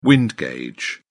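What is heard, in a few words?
"wind gauge", British English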